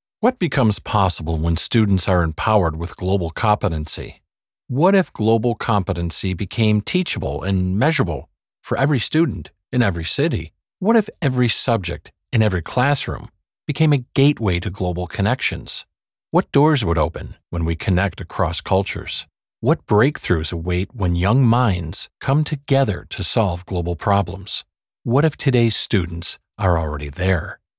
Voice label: PSA